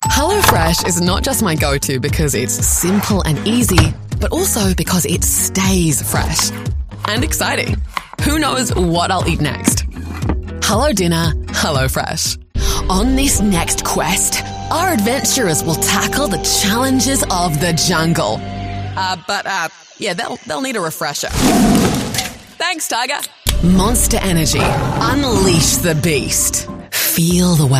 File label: english new zealand narration; voice actor